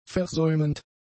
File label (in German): "versäumend", german; adjectives